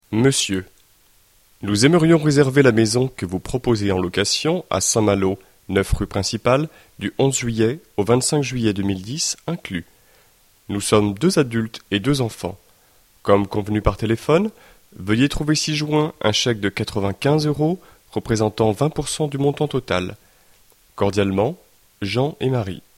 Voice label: dialogue, French